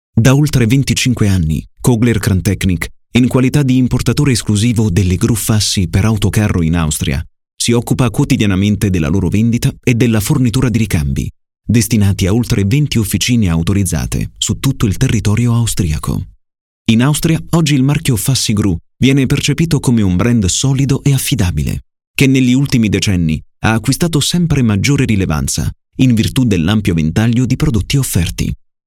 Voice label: corporate promotion